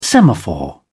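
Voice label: "semaphore", British English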